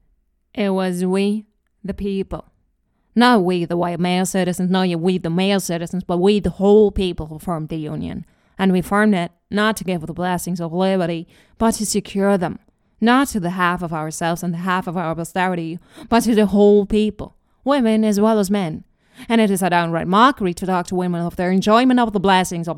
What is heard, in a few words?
New York Sample Speech; Susan B Anthony